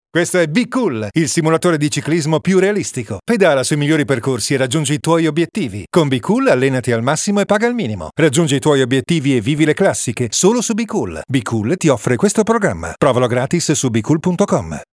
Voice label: locutor italiano, Italian voiceover advertising